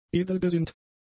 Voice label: "edelgesinnt", german; adjectives